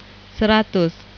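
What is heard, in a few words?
"seratus" Indonesian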